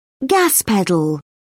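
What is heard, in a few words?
"gas pedal", British English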